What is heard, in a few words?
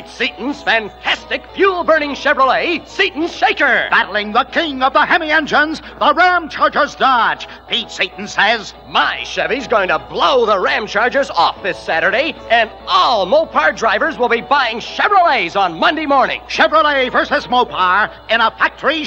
Detroit Dragway Commercial